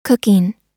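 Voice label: "cooking", US English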